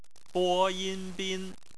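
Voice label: "bin", bopomofo, chinese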